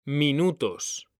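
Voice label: "minutos", Spanish; mekanta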